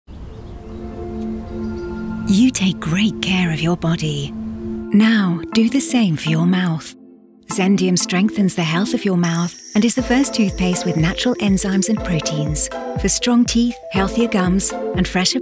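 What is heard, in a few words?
F UK PUB, British, female; Zendium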